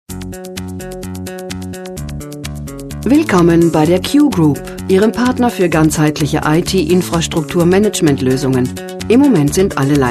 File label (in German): ansage bei besetzt; q group